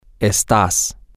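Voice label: you are temporary informal, Spanish, male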